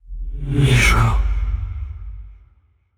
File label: in pain; aragami